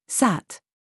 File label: DRESS TRAP, minimal pairs